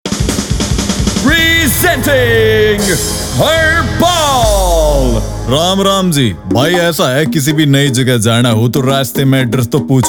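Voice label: Hindi, Characters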